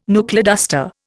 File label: "knuckleduster", Indian